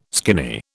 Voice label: "skinny", British